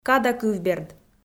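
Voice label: "кадакывберд", Komi, female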